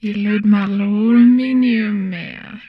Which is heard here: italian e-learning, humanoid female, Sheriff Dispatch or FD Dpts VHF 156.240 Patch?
humanoid female